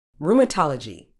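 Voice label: "rheumatology", medical English, British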